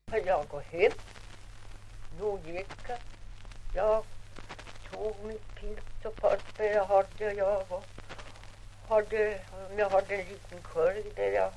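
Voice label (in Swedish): Ovansjö Gästrikland, dialekter, svenska sagor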